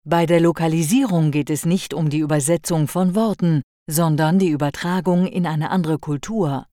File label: german Germany female